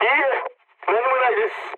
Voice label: jp chat; War Thunder